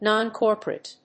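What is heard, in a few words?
"non-corporate", English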